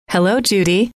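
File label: "hello", female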